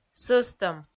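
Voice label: "сӧстӧм", Komi, female; audio dict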